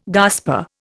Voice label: "gasper", Indian